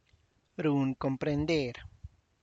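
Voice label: tlacochahuaya, Zapotec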